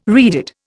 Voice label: "reeded", Indian